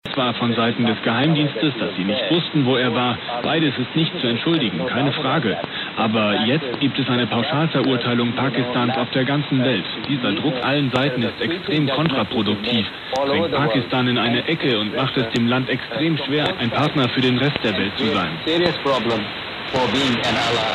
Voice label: 17840khz; Deutsche Welle

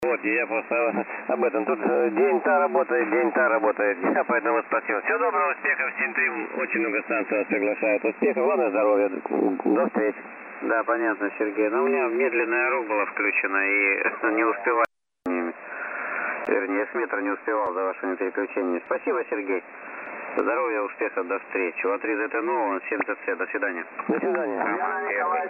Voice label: Hamradio USB 2.5khz

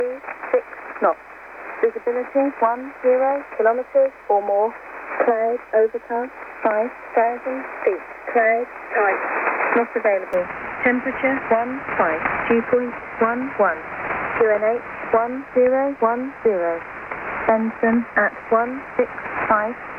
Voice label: Shannon Volmet USB; 5450khz

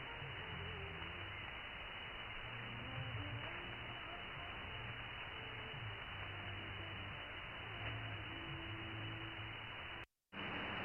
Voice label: 13605khz; SAM; All India Radio; The ColibriNano roars a little more.

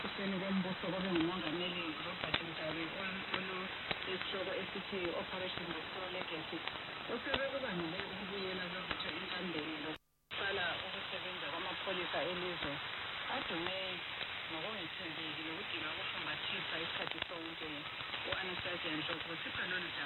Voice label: 4930KHz; VoA Botswana; Reuter Pocket

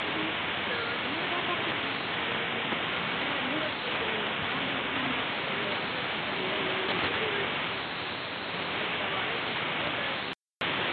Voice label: Radio AM; VoA Deewa; 9310khz

